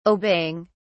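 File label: "obeying", British English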